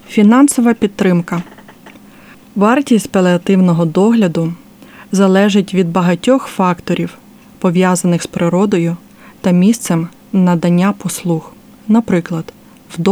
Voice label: Palliative Care Ukrainian; Financial support